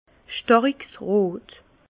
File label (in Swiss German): "stariksrot", Bas Rhin; vin; très rouge